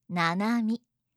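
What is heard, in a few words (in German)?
"nanami", japanische Mädchennamen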